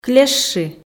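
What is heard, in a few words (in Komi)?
"клещи", Komi, female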